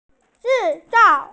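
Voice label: "zhi4zao4", Mandarin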